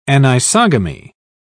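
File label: "anisogamy", British English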